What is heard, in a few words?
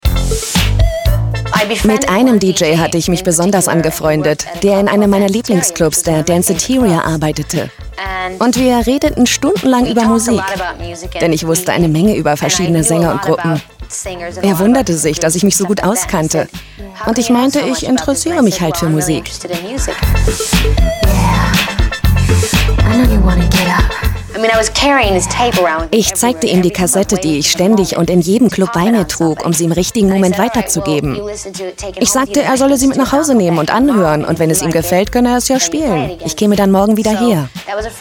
Voiceover